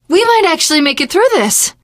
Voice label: hurrah, teen girl, survivor voice